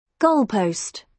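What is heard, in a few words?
"goalpost", British English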